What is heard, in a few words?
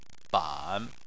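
"ban" Chinese, third tone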